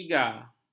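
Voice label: "iga", Malay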